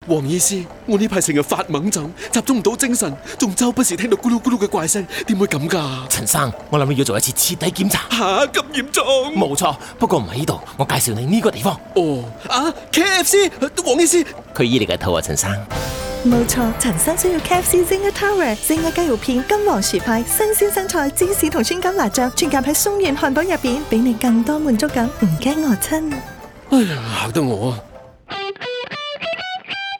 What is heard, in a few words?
Cantonese; KFC